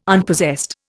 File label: "Unpossessed", Indian